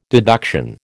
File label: "deduction", American